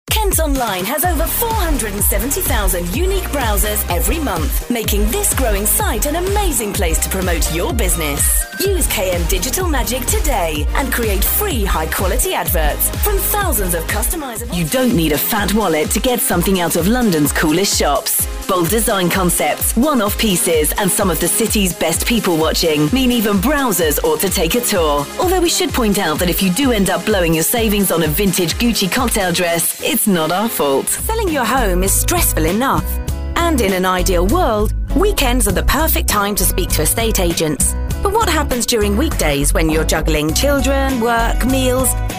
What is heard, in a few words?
upbeat commercial